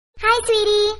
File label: Hi Sweety Cute Girl Voice Notification